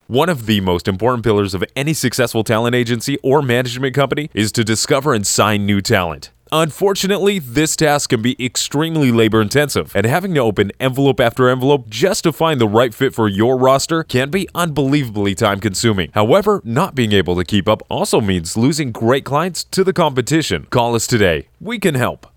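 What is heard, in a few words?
voice over Read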